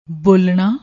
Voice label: "bolnna", Punjabi